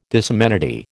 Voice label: "disamenity", American